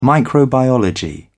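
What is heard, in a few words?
"microbiology", British English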